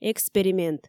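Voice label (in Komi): "эксперимент", Komi, female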